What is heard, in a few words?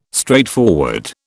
"straightforward", British